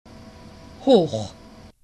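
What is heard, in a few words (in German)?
"hoch", German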